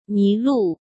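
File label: "迷路", Mandarin Chinese; hsk; chinese-flashcards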